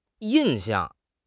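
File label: "yin4 xiang4", Mandarin Chinese; HSK; HSK4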